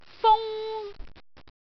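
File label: "fung1", Cantonese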